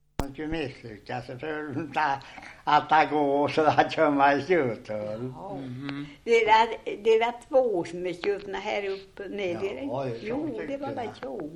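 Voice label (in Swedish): Gunnarsjö Västergötland, dialekter; Svenska sagor; Folkminnen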